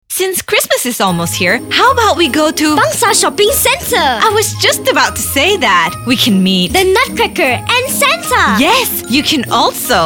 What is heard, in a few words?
ENG; CHRISTMAS 2019